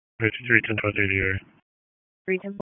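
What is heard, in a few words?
Sheriff's Office Dispatch; wake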